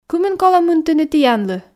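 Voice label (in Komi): кымын колӧ мынтыны тіянлы, Komi, female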